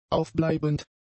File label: "aufbleibend", German; adjectives